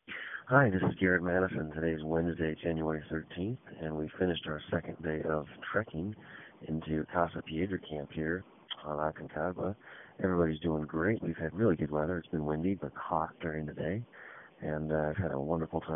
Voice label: voicemail